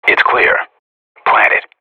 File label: radio bomb site, vo agents professional; Source Model Packs; Counter Strike Model Pack; CS2